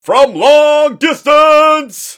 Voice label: from long distance